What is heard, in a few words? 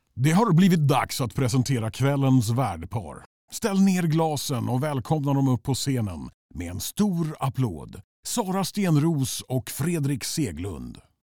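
Swedish Male Epic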